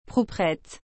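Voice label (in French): "proprette", French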